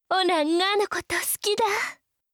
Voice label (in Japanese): 好きです 岩手; imas; 貴音